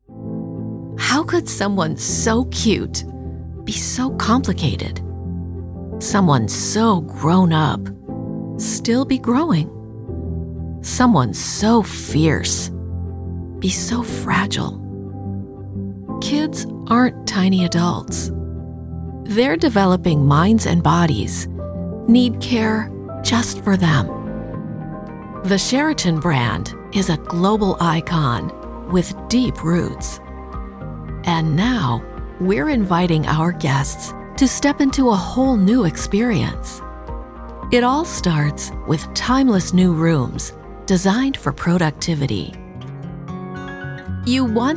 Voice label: Warm Caring